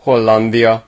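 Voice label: "netherland", Hungarian; gcompris; geography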